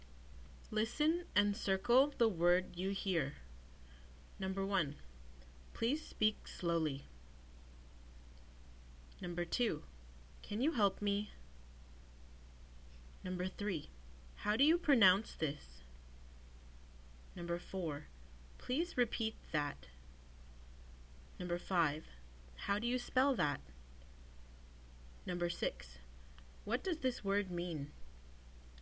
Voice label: Classroom English